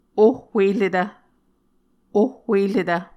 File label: "ohhueretv", muscogee; Mvskoke Words with Repeated Consonants; Martin, Jack B., and Margaret McKane Mauldin. 2023. A Dictionary of Creek/Muskogee